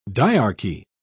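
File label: "dyarchy", British English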